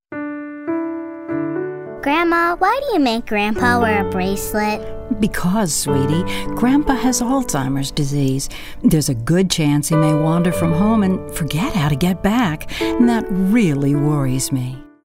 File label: grandmothers